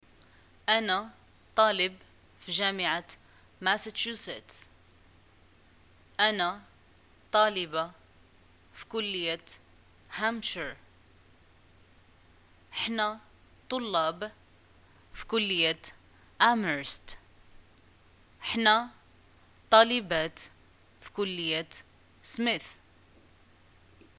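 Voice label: im student at, Moroccan arabic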